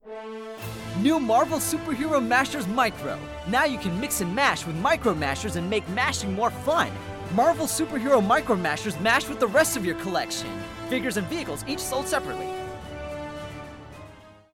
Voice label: Promo